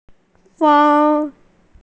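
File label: "feng1", Mandarin Chinese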